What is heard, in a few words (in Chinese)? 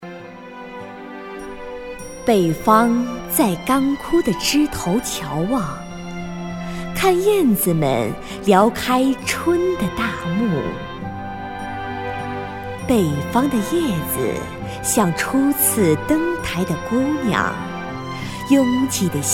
朗诵, 女; 北方的叶子